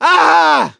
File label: hurt critical, voice; gambler